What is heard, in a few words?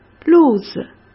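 "路子", Mandarin Chinese; hsk; chinese-flashcards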